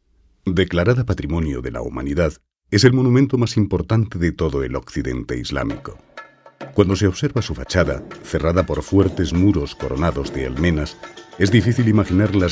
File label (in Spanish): Documental Historia